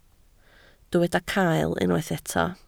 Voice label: "cael", Welsh

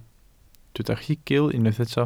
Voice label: "rhugl", Welsh

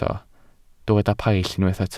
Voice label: "paill", Welsh

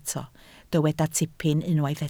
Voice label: "tipyn", Welsh

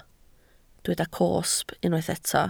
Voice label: "cosb", Welsh

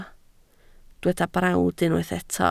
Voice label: "brawd", Welsh

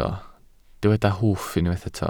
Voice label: "hwff" Welsh

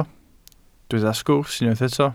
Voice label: "sgwrs" Welsh

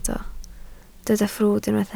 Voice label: "ffrwd", Welsh

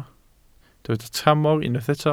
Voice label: "tramor", Welsh

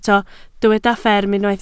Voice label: "fferm", Welsh

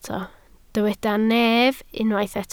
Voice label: "nef", Welsh

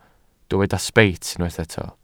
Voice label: "sbeit", Welsh

